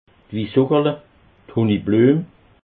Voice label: "wissuugerle", alsace

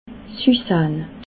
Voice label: "ussahn", alsace

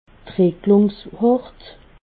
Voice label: "dricklungshort", alsace

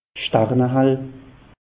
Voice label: "starnehall", alsace; meteo

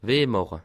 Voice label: "weh", alsace

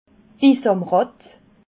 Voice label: "bisamratt", alsace

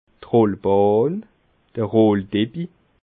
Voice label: "rollbahn", alsace

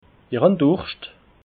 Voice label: "durscht", alsace

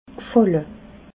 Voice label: "gfalle", alsace

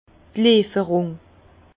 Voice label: "lieferung", Bas Rhin; vin; livraison

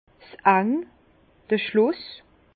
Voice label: "end", Bas Rhin; vin; finale

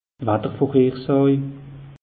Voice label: "watter vorhersàj", alsace; meteo

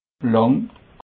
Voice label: "lang", alsace

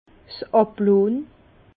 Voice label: "ablohnn", Bas Rhin; vin

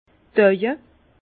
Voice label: "auwe", Bas Rhin; vin; yeux

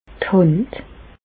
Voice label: "d' Hànd", Bas Rhin; medecine; main

